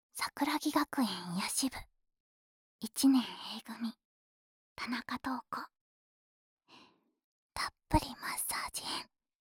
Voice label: タイトルコール

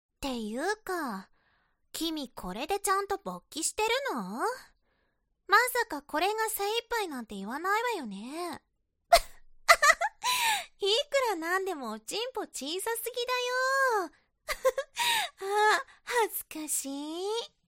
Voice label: 懲罰中音声, ASMR

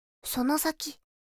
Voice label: その先, カーナビ 案内音声; カーナビ(で使えるかもしれない)案内音声

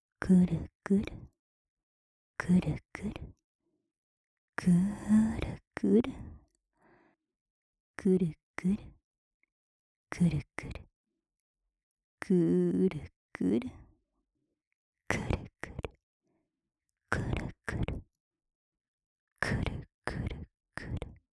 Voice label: クルクル ふつう, 低音お姉さん オノマトペオンリー

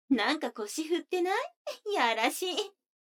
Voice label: 女の子 台詞, ASMR

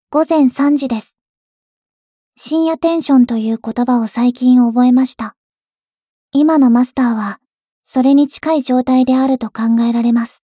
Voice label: 時報ボイス 電脳少女; ボイスコレクション 電脳少女編

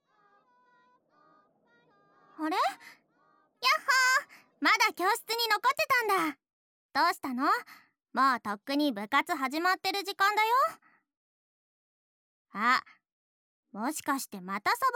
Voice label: くちゅ音無し, ＳＥ差分あり; 夕方の教室で――大事なところを見せ合いながら……。; 相互オナニー

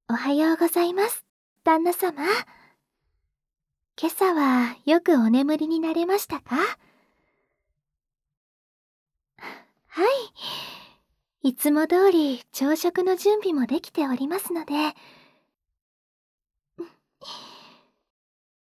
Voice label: おはようボイス, ASMR; 音羽

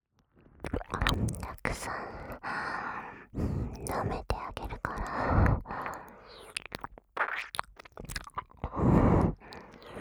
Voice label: 耳舐め手コキ, 効果音あり, ASMR; 社畜のあなたを全肯定してくれるギャルとたっぷり耳舐めイチャラブえっちサンプル

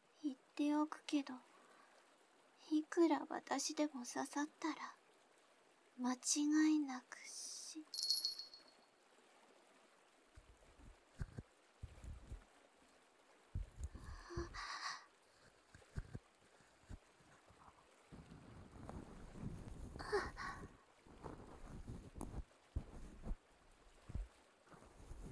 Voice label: ドM耳かき no bgm

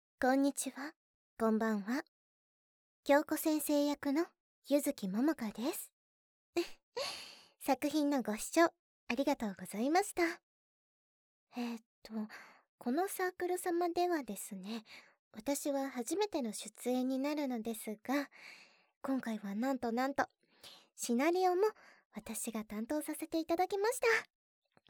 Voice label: フリートーク, ASMR

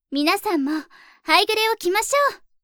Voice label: 皆さんも、ハイグレを着ましょう！, アナウンサー; ハイグレボイス集

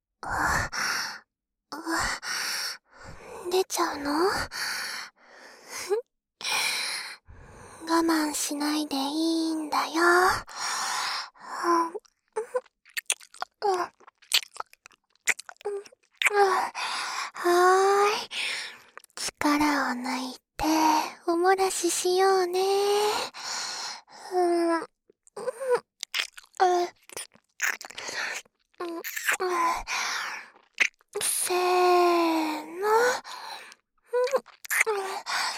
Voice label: 左 声のみ

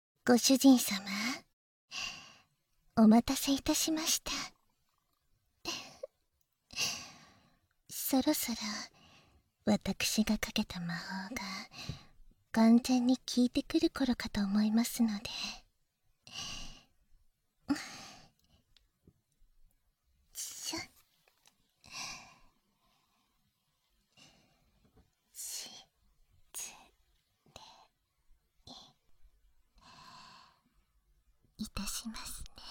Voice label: 耳舐め手コキ（囁き重視編集 効果音なし）

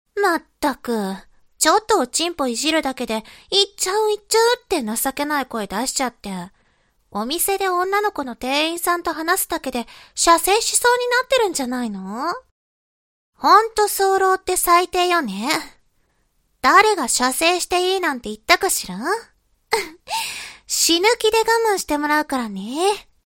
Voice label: 懲罰中音声, ASMR

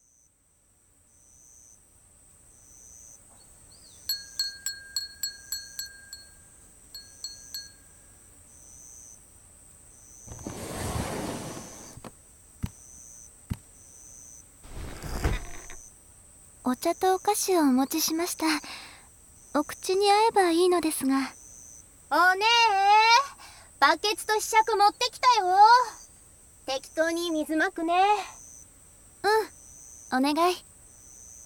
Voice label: 縁側で打ち水をしながら、ゆったり雑談, ASMR

